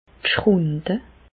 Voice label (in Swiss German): "schrunde", Bas Rhin; medecine; gerçures